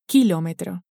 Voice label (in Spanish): "kilometro", Spanish